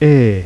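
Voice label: unrounded half close front e; lausanne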